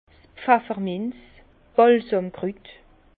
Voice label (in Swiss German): "pfefferminz", Bas Rhin; vin; menthe